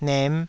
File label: "nem5", taiwanese